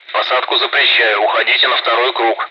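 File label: Speech, Russian, air traffic control; go around runway occupied; DCS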